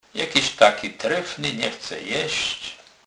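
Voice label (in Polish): "trefny", Kurpie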